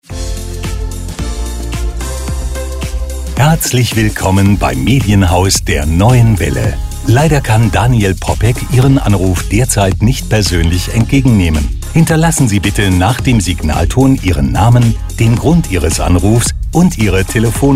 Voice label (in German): telefonansagen; die neue welle